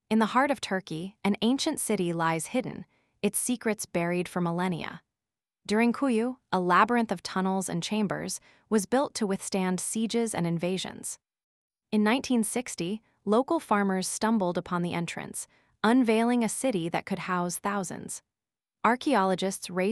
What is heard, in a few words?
voiceover en; The Mysterious Derinkuyu